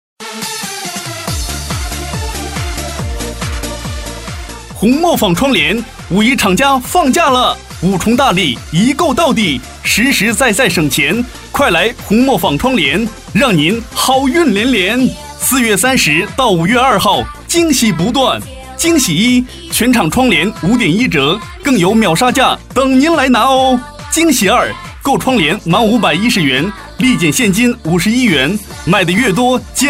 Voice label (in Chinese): 男61 窗帘促销